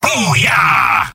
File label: soldier cheers; mvm